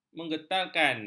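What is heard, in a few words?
"menggetarkan", Malay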